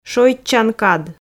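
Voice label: "шойччан кад", Komi, female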